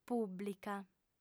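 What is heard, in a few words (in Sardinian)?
"pùblica", female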